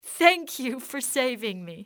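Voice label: thank, english female; XBOX; civ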